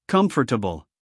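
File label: "comfortable", American English, male; everyone-can-use-english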